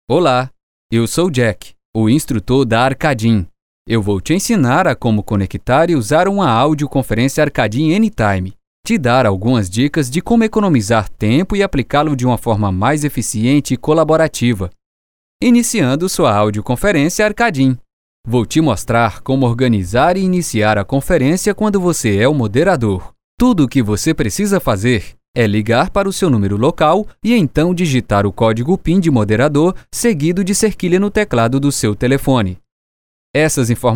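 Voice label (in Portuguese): Vídeo explicativo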